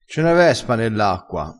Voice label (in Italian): "formiola", crespinese